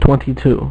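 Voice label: "twenty-two", male; MacCribbage; InterStellar MacCribbage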